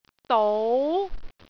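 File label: "dou2", Cantonese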